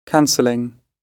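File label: "canceling", British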